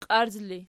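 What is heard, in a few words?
"qiarz" ergative, Archi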